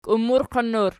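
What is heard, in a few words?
"kiummurqin" plural, Archi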